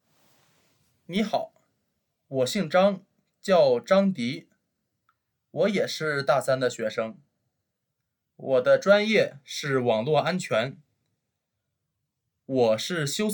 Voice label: Self intro, cyberchinese